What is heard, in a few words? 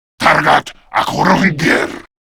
Player Spotted, Male; Warframe; Grineer Male; Battle Barks